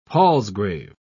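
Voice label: "palsgrave", British English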